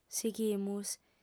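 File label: "sighimus", lingua sarda, female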